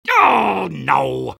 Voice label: negative vocalization; soldier; garrysmod; tf2-content-gmodcontent